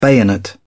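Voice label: "bayonet", English, British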